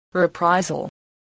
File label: "reprisal", English